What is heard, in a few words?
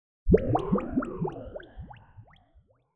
confused